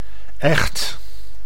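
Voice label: "echt", Dutch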